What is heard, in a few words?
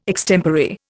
"extempore", Indian